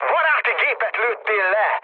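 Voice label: Hungarian player killed ally mood med, speech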